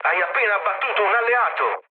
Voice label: player killed ally, mood low, speech it; War Thunder

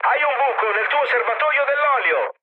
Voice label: damaged oil, mood med, speech it; War Thunder

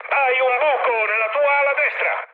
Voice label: right wing, mood med, speech it; War Thunder

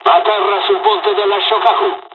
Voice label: landing, dialogs italian; War Thunder

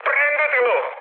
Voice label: attack position, mood high, speech it

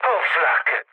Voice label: damaged aa, mood low, speech it; War Thunder

